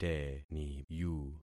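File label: "teniyu", concatenated syllables; Affixing experiment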